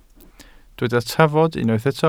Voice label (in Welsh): "trafod" Welsh